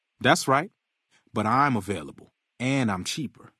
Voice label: male adult; FNV